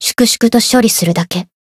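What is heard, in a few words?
Voice encount, Japanese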